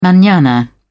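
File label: "manana", British English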